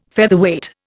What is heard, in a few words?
"Featherweight", Indian